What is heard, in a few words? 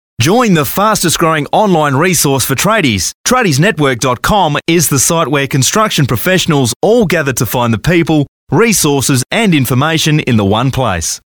male talent corporate